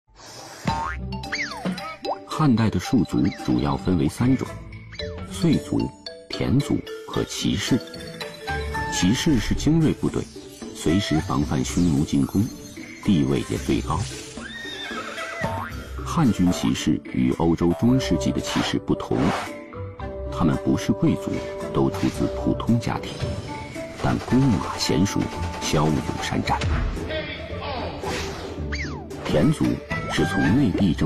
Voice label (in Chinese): 男, 纪录片, 汉代骑士; 文献类案例